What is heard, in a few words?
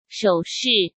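"首饰", Mandarin Chinese; chinese-flashcards; hsk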